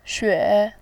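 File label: "xue", Mandarin Chinese, tone 3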